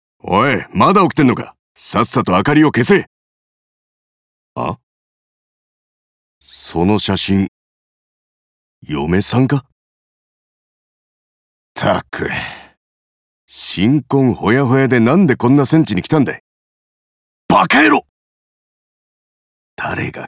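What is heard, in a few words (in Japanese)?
部下思いの戦士, 讃岐弁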